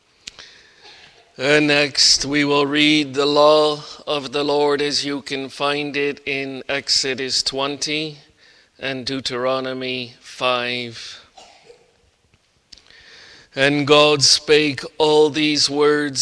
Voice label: Bible Reading; jesus mercy to one taken with palsy 3